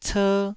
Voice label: "cer1", taiwanese syllables

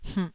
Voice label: "hmh2", taiwanese

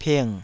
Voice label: "ping3", Taiwanese

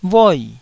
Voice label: "voi4", taiwanese

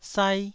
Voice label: "sai1", taiwanese

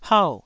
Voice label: "hau4", Taiwanese syllable

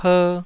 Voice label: "per2", taiwanese

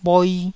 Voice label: "bhoi1", taiwanese Syllables

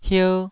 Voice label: "hier2", Taiwanese syllable

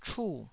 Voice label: "chu4", taiwanese

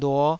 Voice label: "luo5", Taiwanese syllable

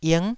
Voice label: "ing9", taiwanese syllable

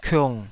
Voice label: "kiung3", taiwanese syllable

